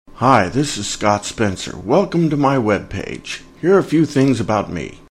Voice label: Web Greeting